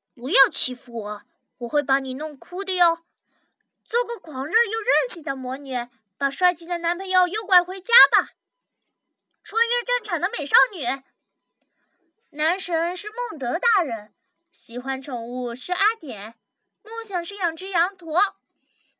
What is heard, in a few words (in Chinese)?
少女